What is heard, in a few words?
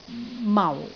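"mau4", Cantonese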